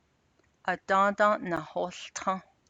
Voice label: navajo clauses, It rained yesterday